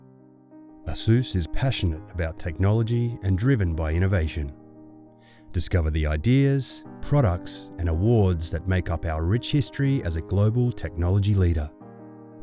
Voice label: Corporate narration